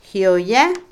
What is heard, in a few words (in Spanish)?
huérfano, huérfana, Otomí, mujer; Parentesco; Vocabulary; INALI